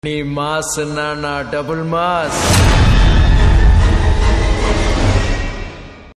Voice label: Dialogue Ringtone, Telugu; Kanchana 3; Raghava Lawrence

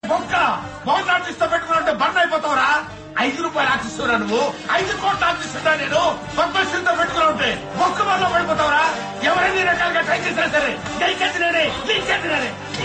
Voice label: Dialogue Ringtone, Telugu; Brahmi; Dookudu

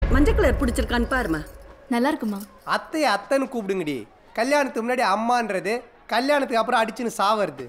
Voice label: Trailer Dialogue Ringtone, Tamil; Dikkiloona

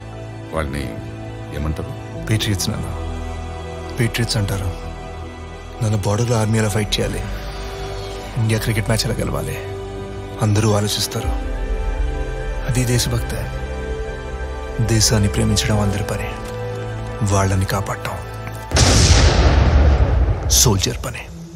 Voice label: Dialogue Ringtone, Telugu; Major Teaser; Adivi Sesh

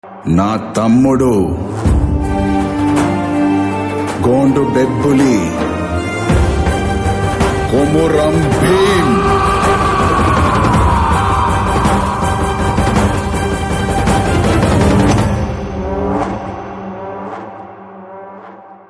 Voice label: Dialogue Ringtone, Telugu; Komaram Bheem; RRR

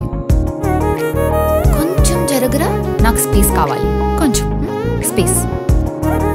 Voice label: Dialogue Ringtone, Telugu; Aravindha Sametha

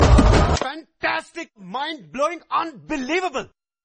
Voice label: Fun Dialogue Ringtone, Telugu; Dookudu

